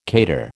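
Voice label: "cater", American English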